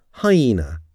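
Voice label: "hyena", English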